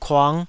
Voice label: "kuang5", taiwanese syllables

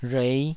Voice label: "rhuei5", taiwanese

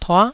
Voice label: "tuah9", taiwanese

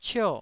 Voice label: "cio4", Taiwanese

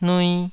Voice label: "nui2", taiwanese

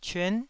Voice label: "cyuan9", Taiwanese syllable

